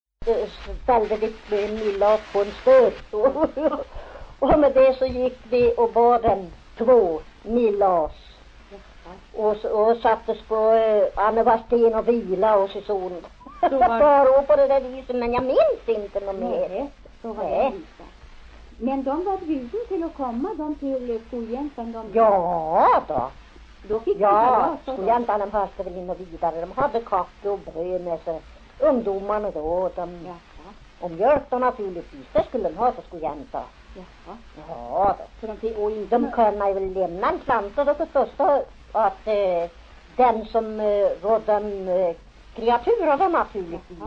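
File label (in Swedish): Järvsö Hälsingland, dialekter; Folkminnen; Svenska sagor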